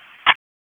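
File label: Police Northwest District Tactical 1, p25; Raleigh; wake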